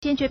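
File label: "坚决 jiānjué", Chinese; 堅決; adjective; resolute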